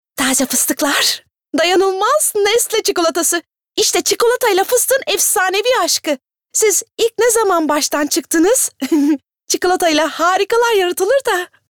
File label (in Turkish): ANIMASYON